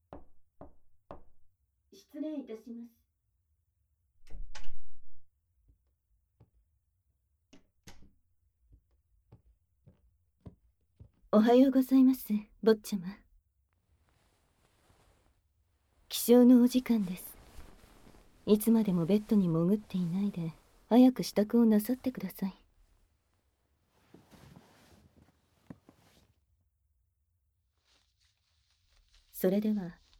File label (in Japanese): ダウナークールな妖艶執事; 清楚工房